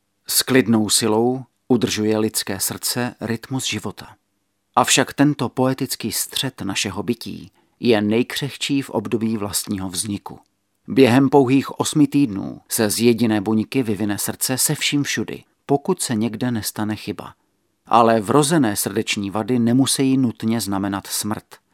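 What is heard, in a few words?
male Czech documentary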